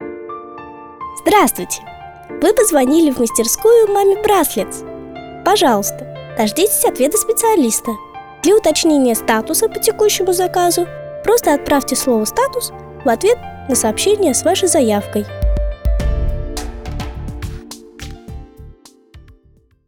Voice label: IVR voice